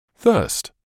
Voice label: "thirst", English

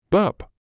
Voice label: "burp", medical english uk; bɜːp

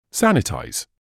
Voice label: "sanitise", English

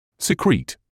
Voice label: "secrete", English

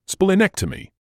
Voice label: "splenectomy", English

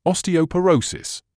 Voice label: "osteoporosis", English

